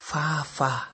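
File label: "farfar", Nigerian Pidgin, male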